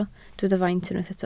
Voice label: "faint", Welsh

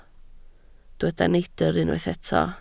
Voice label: "neidr", Welsh

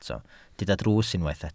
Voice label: "drws", Welsh

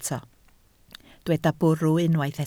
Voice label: "bwrw", Welsh

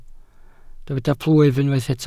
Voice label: "plwyf", Welsh

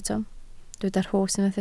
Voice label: "rhos", Welsh

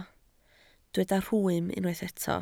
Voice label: "rhwym", Welsh

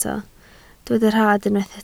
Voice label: "rhad", Welsh

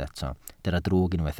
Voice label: "drwg", Welsh

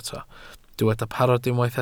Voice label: "parod", Welsh

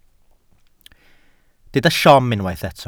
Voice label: "siom", Welsh

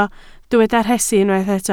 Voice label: "rhesi", Welsh

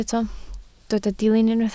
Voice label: "dilyn", Welsh

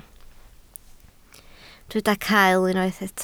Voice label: "cael", Welsh

